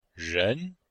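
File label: "ren2", Chinese; Cours de Chinois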